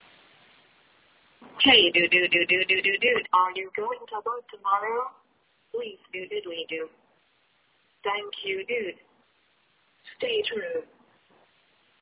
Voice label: voicemail